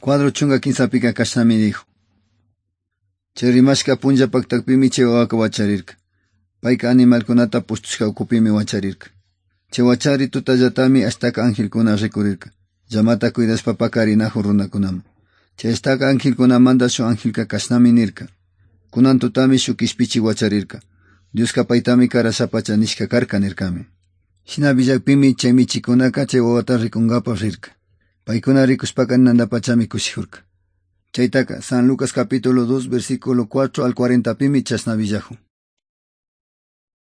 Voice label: good news quichua otovalo

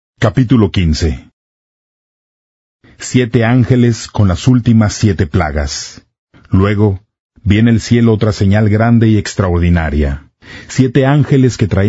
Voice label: spanish, non-drama

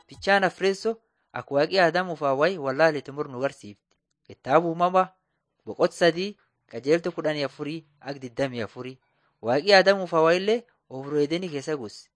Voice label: borana sakuye

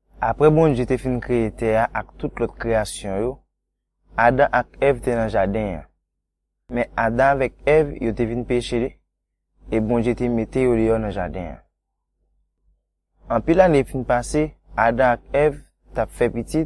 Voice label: haitian creole, bible stories; inondasyon nan noe

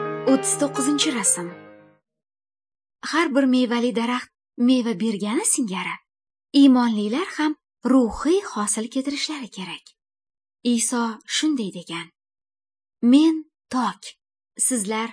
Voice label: good news female uzbek northern

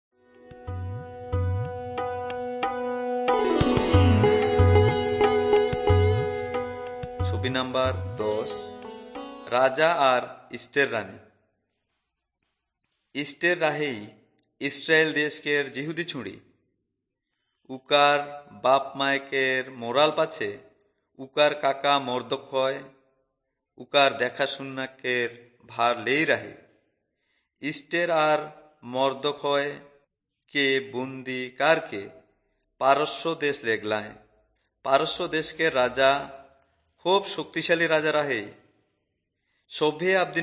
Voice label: urao, drama; kurukh fmp nt drama; on trial for god

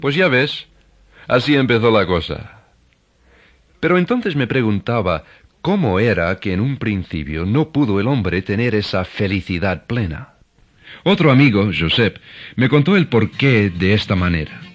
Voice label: words of life spanish castellano

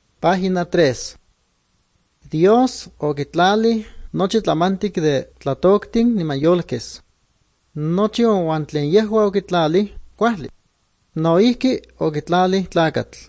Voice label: good news, nahuatl guerrero chilapa; guerrero nahuatl the bible league nt drama

